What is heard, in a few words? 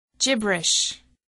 gibberish